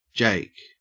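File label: "jake", Australian English; pronunciations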